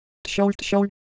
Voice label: Korean, ideophones